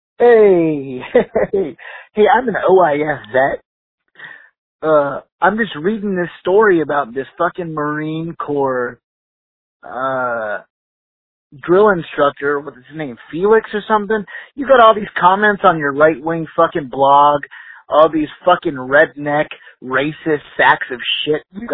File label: Voicemail